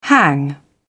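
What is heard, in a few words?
"hang up", British English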